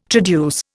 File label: "traduce", Indian